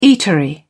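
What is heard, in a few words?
"eatery", British English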